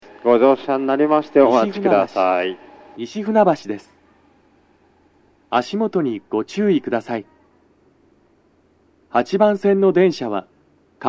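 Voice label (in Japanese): 到着放送 男声, 各駅停車 中野行き 最終電車; 収録者:こたけ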